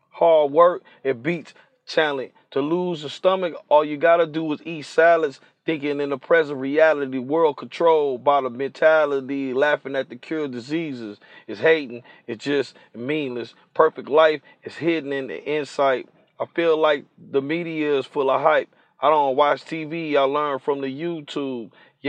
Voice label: hip hop, 89, D sharp, acapella

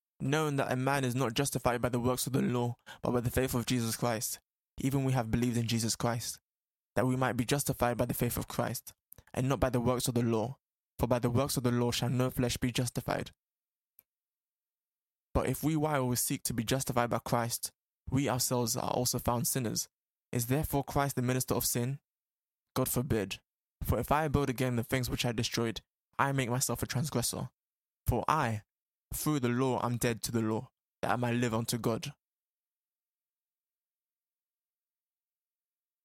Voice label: spoken word, 64, C, acapella

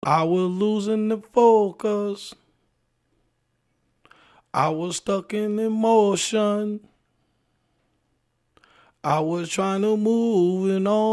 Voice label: hip hop, 106, Abm, acapella

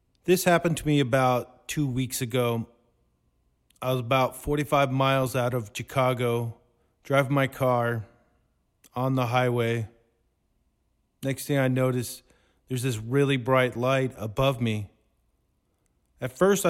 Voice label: spoken word 120 Cm; acapella

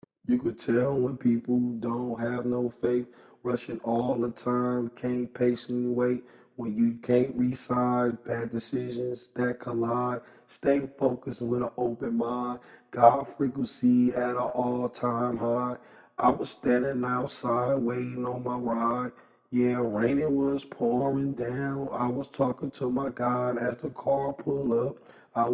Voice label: hip hop, 144, Bm, acapella

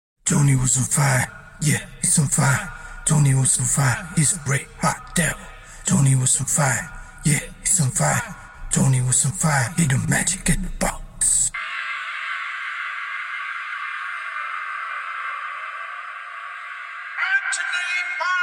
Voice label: spoken word, 85, Gbm, Acapellas

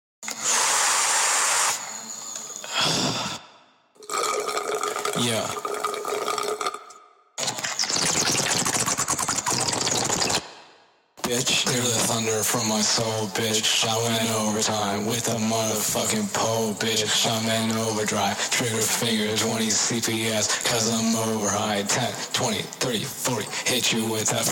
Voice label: CHAINWORLD, rap, 140, Bbm, acapella

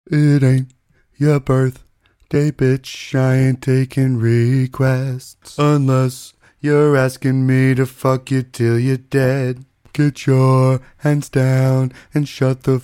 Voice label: comedy 110 Cm, acapellas; It Aint Your Birthday B

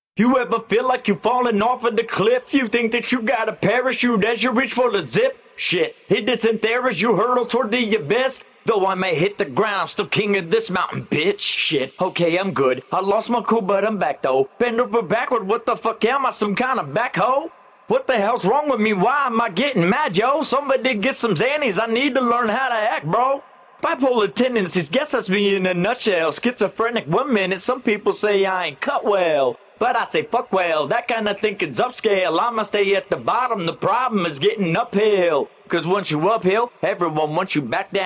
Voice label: hip hop, 81, Gm, acapella

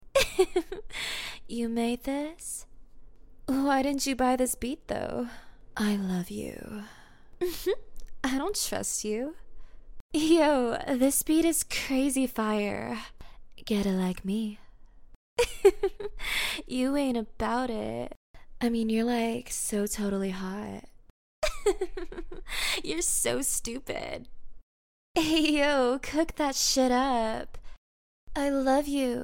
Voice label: hip hop 90 Cm, acapellas

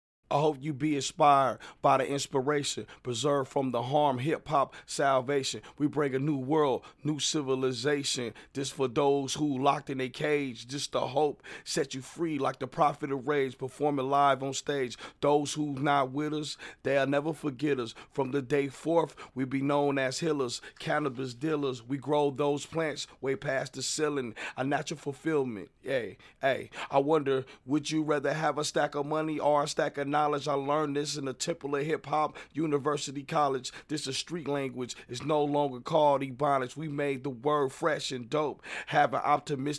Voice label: hip hop 103 C, acapellas; Hope Verse

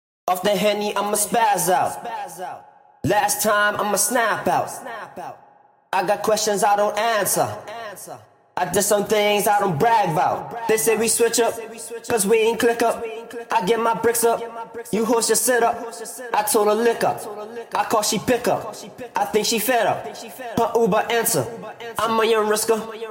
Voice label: rap 170 A sharp, acapellas

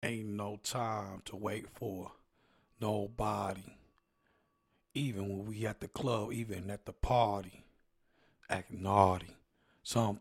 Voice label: hip hop, 172, Gm, acapella

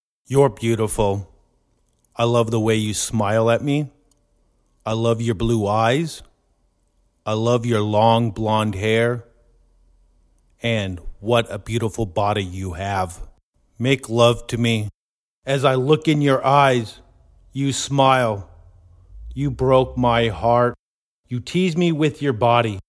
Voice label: spoken word, 120, B, acapellas

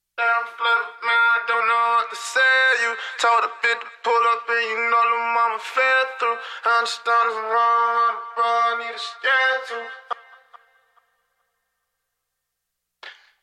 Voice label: rap, 140, B, acapella

